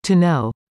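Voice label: "to know", English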